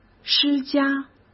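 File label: "施加", Mandarin Chinese; hsk; chinese-flashcards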